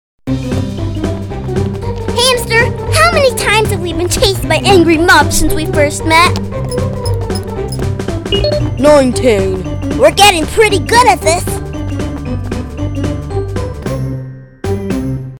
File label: Animation